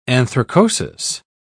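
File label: "anthracosis", British English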